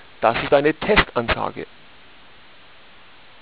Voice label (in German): test Ansage; erlangen